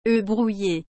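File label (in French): "œufs brouillés", French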